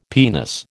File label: "penis", American